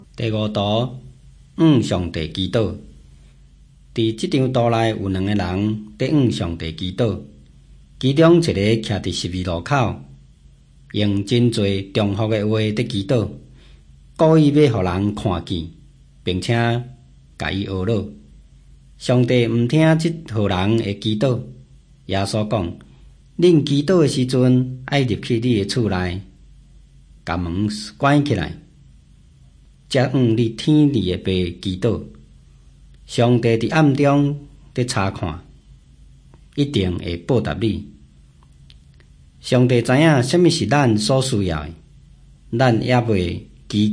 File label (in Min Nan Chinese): taiwanese, drama